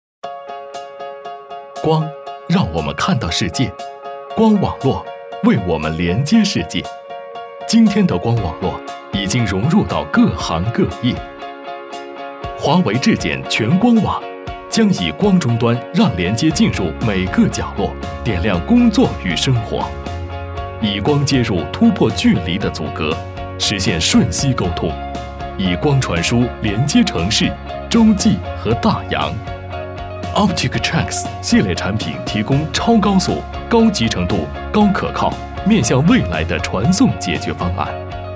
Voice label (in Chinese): 男, 时尚宣传片